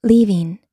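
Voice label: "leaving", American English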